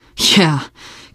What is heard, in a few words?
nice job, teengirl; survivor voice